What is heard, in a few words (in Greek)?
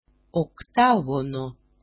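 "oktagono", Greek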